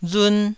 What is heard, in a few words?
"run1", taiwanese